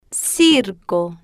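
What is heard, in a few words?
"circo", Spanish, female, slow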